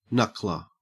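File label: "knuckler", Australian English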